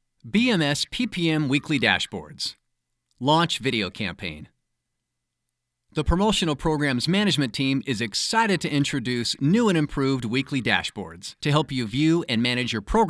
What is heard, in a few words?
conversational trustworthy approachabl